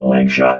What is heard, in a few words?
"leg shot", robot